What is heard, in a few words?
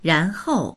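"ran2hou4", Mandarin Chinese; 然后; それから、その後、そして; dictionary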